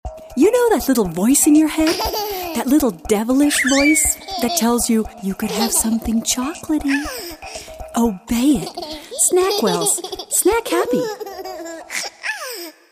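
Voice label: American English, Werbung, Dynamisch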